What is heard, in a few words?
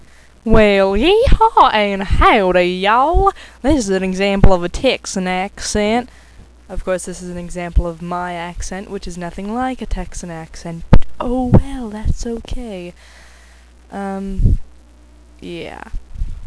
texan; Recorded for Mon and Alicey in a discussion of accents.